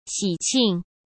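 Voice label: "喜庆", Mandarin Chinese; hsk; chinese-flashcards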